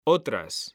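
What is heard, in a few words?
"otras", Spanish, male; mekanta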